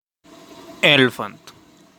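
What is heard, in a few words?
"Elephant", English; animals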